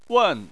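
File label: "wen", Chinese, fourth tone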